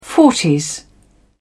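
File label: "forties", English, British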